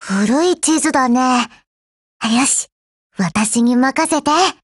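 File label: BLACK ACTION Japanese, Voice; GF Alarm; Falcon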